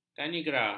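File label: "kanigara", Malay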